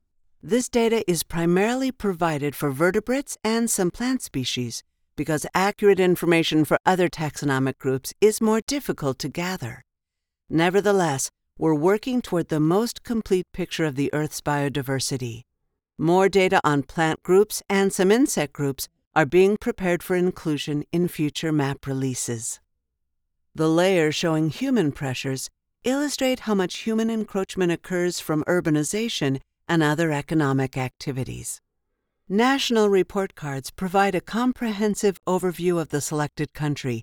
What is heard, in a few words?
explainer, voiceover explainer narration; Half-Earth